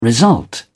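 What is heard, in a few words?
"result", English, British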